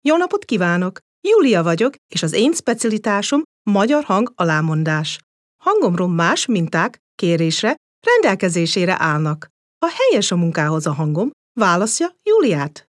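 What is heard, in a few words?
Hungarian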